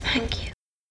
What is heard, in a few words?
british thank you; garrysmod